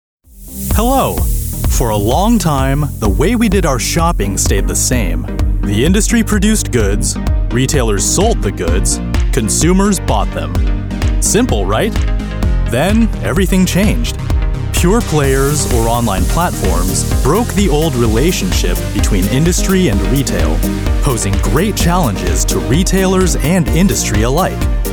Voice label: explainer video